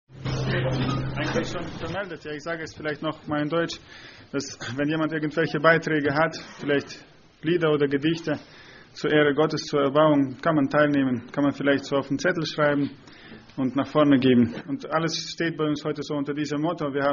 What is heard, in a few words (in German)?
ansage; Братское (Германия, 04-25)